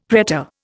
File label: "pretor", Indian; Dictionary